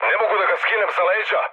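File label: Serbian aircraft damaged mood med, speech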